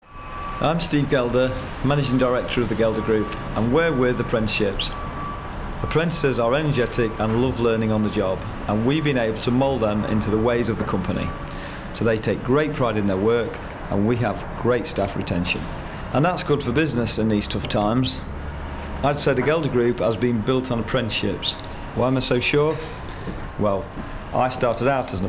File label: Advert